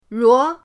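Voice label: "ruo2", Mandarin Chinese, second tone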